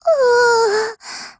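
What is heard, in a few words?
LOW MOOD, Japanese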